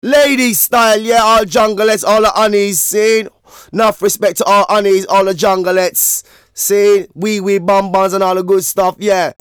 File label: Vocals; Jungle Frenzy 1